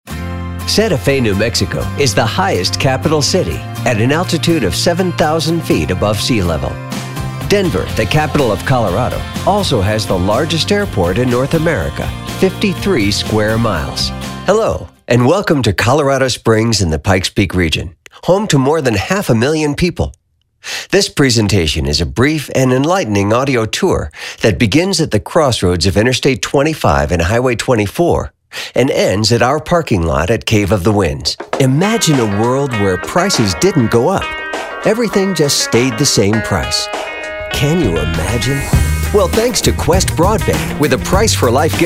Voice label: Narration